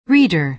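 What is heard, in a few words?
"reader", American English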